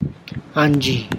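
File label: Tlacochahuaya Zapotec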